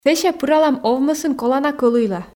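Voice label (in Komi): "сэсся пыралам овмӧсын колана кӧлуйла", Komi, female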